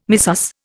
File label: "missus", Indian